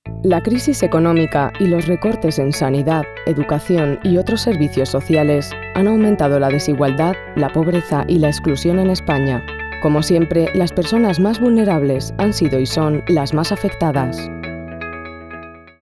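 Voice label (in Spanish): Spanish Corporativo; Pobreza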